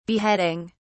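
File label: "beheading", en, uk British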